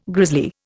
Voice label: "grisly", Indian